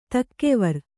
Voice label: "ತೂಗುವರ", Kannada

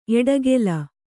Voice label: "ಎಡೆಗೋಲ್", Kannada

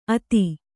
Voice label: "ಅಥ", Kannada

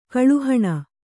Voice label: "ಕಲಾಹೀನೆ", kannada

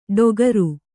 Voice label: "ಟೋಕರು", Kannada

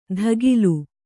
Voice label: "ಧಗಿಲು", Kannada

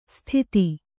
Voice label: "ಸ್ಥಿತಿ", Kannada; sthiti; noun; exist

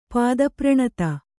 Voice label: "ಪಾದಪ್ರಣತ", Kannada

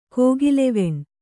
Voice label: "ಕೋಗಿಲೆವೆಣ್", Kannada

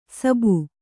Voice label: "ಸಬ್ಬು", Kannada

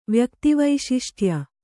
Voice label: "ವ್ಯಕ್ತಿವೈಶಿಷ್ಟ್ಯ", kannada

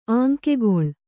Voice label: "ಆಂಕೆಗೊಳ್", Kannada

